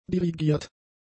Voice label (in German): "dirigiert", German; adjectives